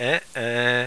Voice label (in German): "aeh aeh", plattdeutsch